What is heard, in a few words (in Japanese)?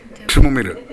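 "kimomeru", 南山形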